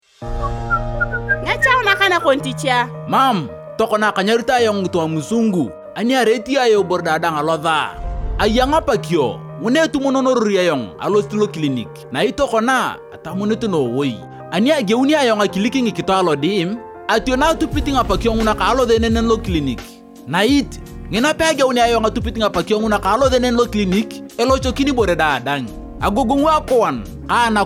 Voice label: Time Up HIV Spot ART Adherence Challenges Karamajong; radio spot